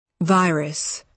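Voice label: "virus", British English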